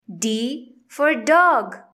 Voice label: "d dog", english; grade1; identifying letters i